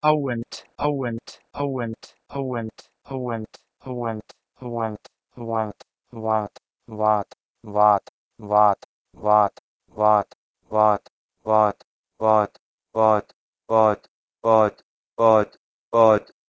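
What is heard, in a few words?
Proto-Indo-European h2weh1nt to Persian bad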